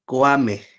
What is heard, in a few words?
"koamej", Náhuatl; víboras; snakes